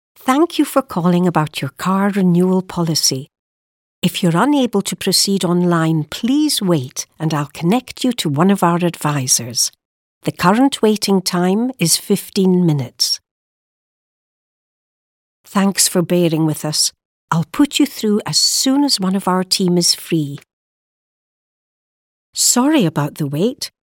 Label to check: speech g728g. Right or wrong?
Wrong